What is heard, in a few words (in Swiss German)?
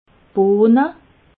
"bohne", alsace